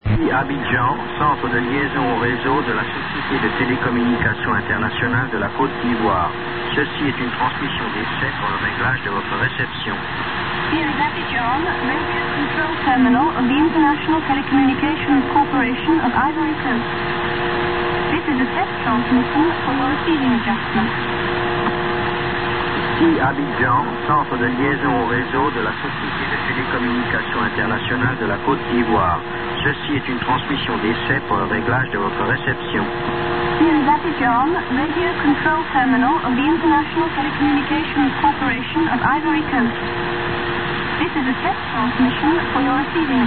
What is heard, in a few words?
usb